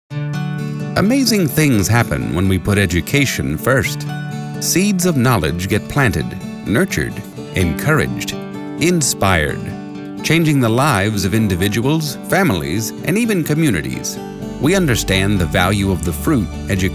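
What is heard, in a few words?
Commercial, voice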